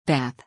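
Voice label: "bath", English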